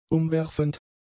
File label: "umwerfend", German; adjectives